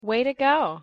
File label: "way to go", en